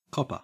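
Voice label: "copper", Australian English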